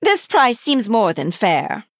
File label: Female High Elf After Selling; Bacon Shop Bob